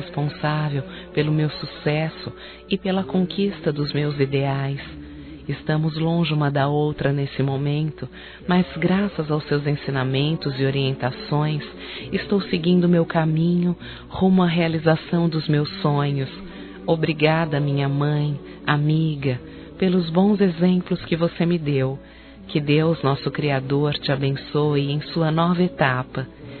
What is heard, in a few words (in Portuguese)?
Aniversário Mãe Distante Feminino; Aniversários; Aniv Familiar